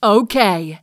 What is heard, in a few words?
"Okay", voice